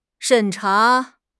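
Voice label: "审查", Mandarin Chinese; HSK6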